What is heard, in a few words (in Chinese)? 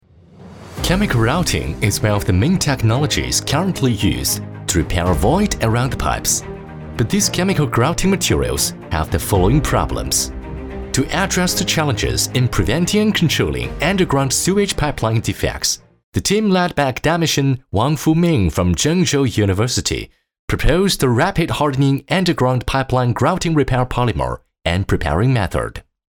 美式英文